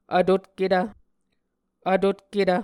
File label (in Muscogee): "vtotketv", Muscogee